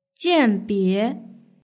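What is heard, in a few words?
"jianbie" Chinese word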